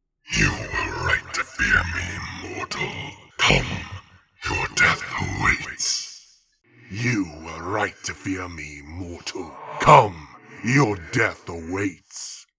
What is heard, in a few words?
demon audition; epic quest